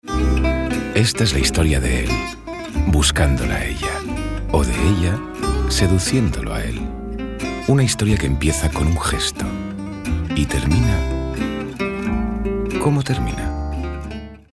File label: male spanish jewelry comercial; ideasonora